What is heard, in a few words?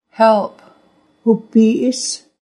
Help, Nuu-chah-nulth